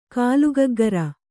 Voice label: "ಗಲ್ಲಗುಗ್ಗುರಿ", kannada